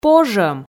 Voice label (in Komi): "пожӧм", Komi